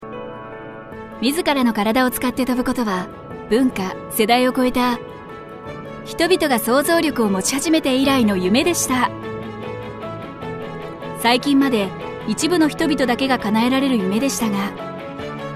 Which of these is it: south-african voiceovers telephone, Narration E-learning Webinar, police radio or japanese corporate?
japanese corporate